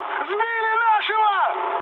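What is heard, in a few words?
Russian aircraft destroyed mood high, speech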